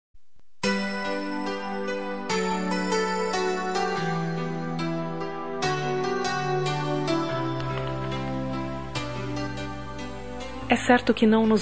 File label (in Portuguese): CONQUISTA SERTANEJA FEM; Telemensagens; Conquistas Cantadas paqueras; Telemensagens 2017